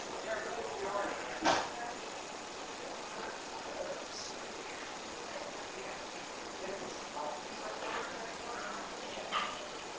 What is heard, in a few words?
Unexplained low whisper (Psst Yeah)